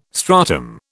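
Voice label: "stratum", British English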